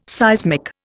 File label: "seismic", Indian